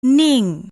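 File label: "ning", Malay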